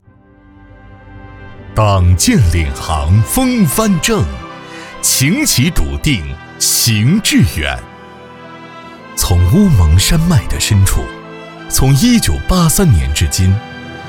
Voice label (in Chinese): 党建沉稳大气, 普通话; 浑厚大气男个配